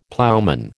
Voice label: "ploughman", American